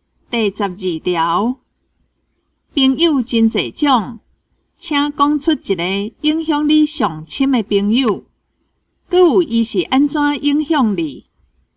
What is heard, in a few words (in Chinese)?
口語表達, 閩南語; 閩南語語言能力認證; 金安咱來考C